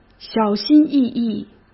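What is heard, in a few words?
"小心翼翼", Mandarin Chinese; hsk; chinese-flashcards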